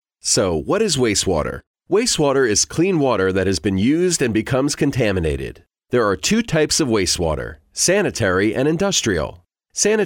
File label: male american voice over narrative, english american